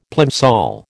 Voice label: "plimsoll", American